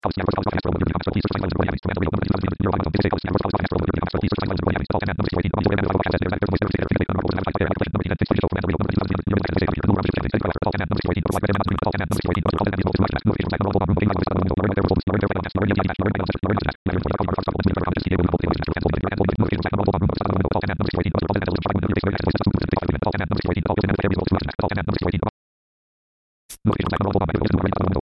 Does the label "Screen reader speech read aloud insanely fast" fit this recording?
Yes